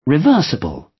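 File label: "reversible", British English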